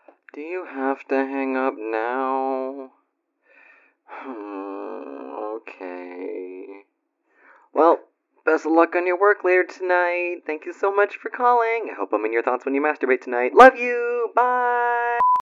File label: pouty hangup